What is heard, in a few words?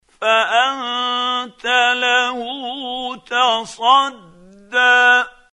quran, Hussary, tafseer; Abasa